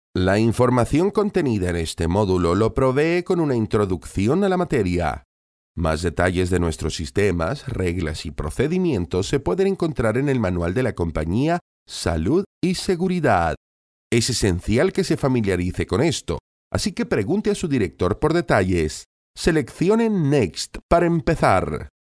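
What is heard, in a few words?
Spanish Spain, male, voice over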